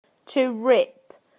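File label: "to rip", British English; PictureDictionary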